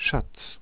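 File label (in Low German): "schatz", Low German; tdegames; ktuberling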